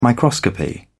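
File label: "microscopy", British English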